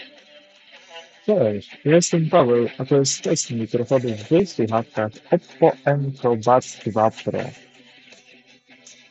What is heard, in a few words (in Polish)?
oppo enco buds2 pro glosno